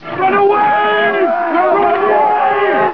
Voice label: male taunt; starsiege